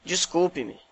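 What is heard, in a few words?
sorry, Brazilian Portuguese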